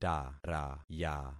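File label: "taraya", concatenated syllables; Affixing experiment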